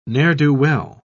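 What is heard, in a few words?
"ne'er-do-well", British English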